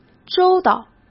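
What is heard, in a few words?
"周到" Mandarin Chinese; hsk; chinese-flashcards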